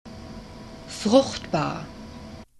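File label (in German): "fruchtbar", German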